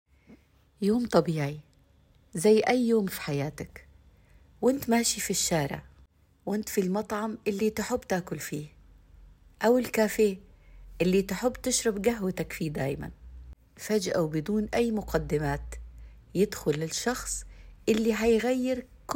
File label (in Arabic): باللهجة السعودية; يوم طبيعي